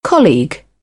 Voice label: "colleague", British; English

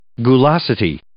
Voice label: "gulosity", English

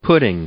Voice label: "pudding", English; noun

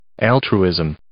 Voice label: "altruism", English

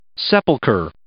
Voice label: "sepulchre", English

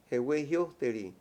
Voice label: "escritura antigua", Mayo; Habla y lenguaje

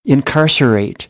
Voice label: "incarcerate", American; AmericanBritish

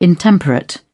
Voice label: "intemperate", British; ɚ - əɹ; English

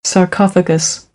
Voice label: "sarcophagus", American English

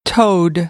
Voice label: "toad", American, English; oʊ - əʊ

